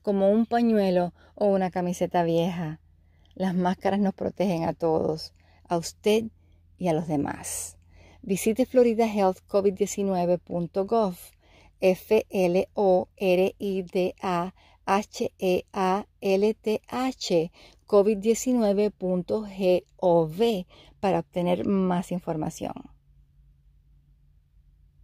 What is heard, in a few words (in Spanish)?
anuncio de servicio público de prevención spanish audio psa; action